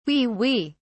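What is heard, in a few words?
"wee wee", British English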